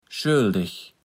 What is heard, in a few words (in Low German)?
"schöldeg", Platt